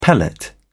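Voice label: "pellet", English, British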